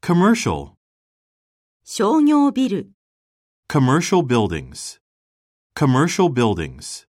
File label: commercial